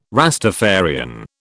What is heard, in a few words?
"Rastafarian", British